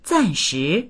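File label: "zan4shi2", Mandarin Chinese; 暂时; しばらくのあいだ、当分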